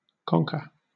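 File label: "conker", British English; pronunciations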